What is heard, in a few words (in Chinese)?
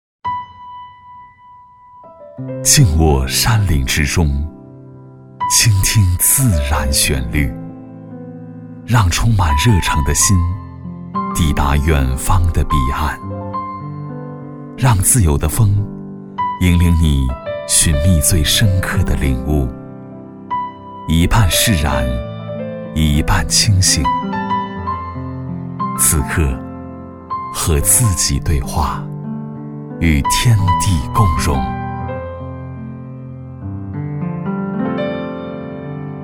男 独白; 静卧山林之中